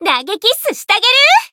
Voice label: Voice heal jp; millecha